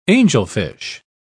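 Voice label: "angelfish", British English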